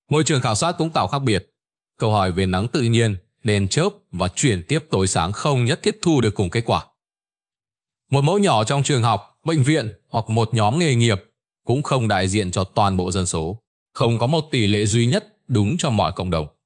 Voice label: narration; Vi Sao Anh Nang Co The Khien Ban Hat Hoi; StickerMan